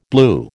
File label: "blew", American